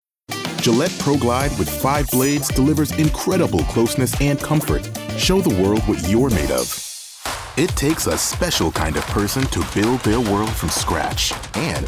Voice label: Commercial, Voice over